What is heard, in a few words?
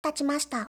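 "tachimashita", Japanese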